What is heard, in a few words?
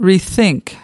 "rethink", us